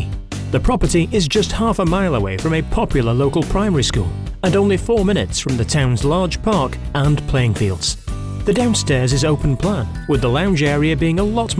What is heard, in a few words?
english british real estate; locutor britânico nativo; Inglês (britânico); Reino Unido